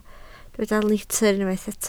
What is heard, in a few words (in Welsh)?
"litr", Welsh